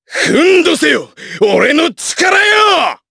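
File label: Vox Skill Japanese; Chase; kingsraid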